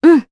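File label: Vox Aff Japanese; Scarlet; kings raid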